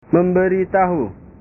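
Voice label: "memberitahu", Indonesian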